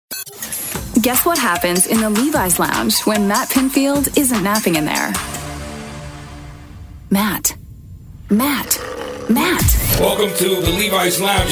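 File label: radio female adult album alternative; radio imaging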